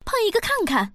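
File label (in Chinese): "peng", female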